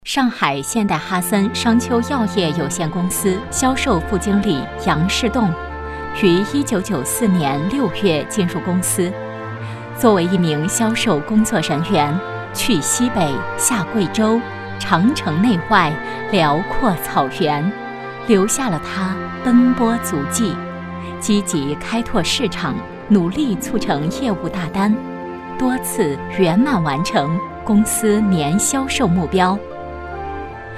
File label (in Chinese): 女 人物 先进工作者专题